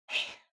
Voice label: arachnid exclaim; civ13; civ14; Voice Talk

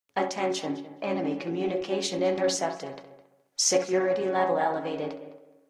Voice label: intercept, Announcements; civ13; civ14

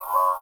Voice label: borg, Voice Talk Silicon

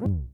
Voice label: speak exclaim

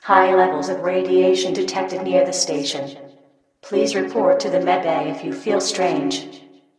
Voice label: radiation, Announcements; civ13; civ14

